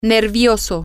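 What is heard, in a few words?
nervous, Spanish, female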